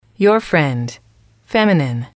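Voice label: "friend", english, female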